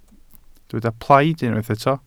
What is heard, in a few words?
"plaid", Welsh